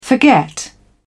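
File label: "forget", British English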